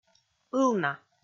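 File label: "ылна", Komi, female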